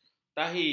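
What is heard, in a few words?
"tahi", Malay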